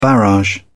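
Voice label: "barrage", British English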